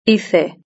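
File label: "hice", Spanish; verb-conjugator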